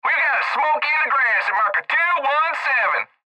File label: Cb Trucker Radio; Cb Radio Male WeVe Got A Smokey In The Grass At Marker Two One Seven; Radio Voice; Blastwave